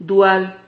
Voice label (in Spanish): "dual", Silabas